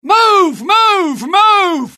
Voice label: alert, dialogue UK; mohaa

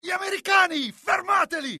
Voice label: alert, dialogue IT; mohaa; attack

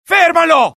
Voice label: alert, dialogue IT; mohaa; attack

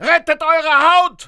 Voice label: fear; mohaa

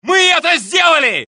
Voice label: Russian; mohaa; dialogue

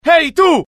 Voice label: anger, dialogue IT; mohaa; attack

